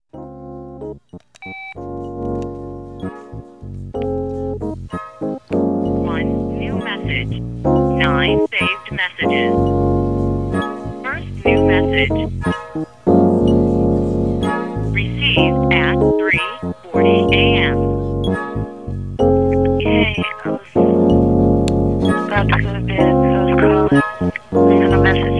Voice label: voice mail interlude